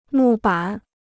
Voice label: "木板", Chinese